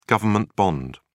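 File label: "government bond", English, British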